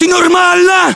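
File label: status check, battlechatter, Russian; localized english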